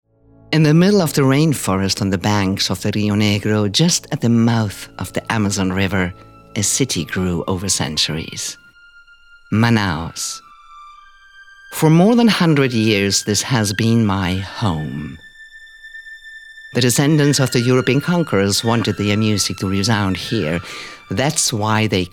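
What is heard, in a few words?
voiceover, Doku, English US; Teatro Amazonas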